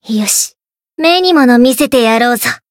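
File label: Voice limitbreak, Japanese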